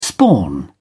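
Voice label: "spawn", British English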